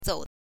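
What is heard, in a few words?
"zou", Mandarin Chinese pinyin, neutral tone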